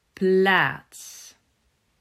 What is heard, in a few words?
"Plaats", Dutch